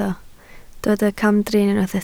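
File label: "camdrin" Welsh, spoken word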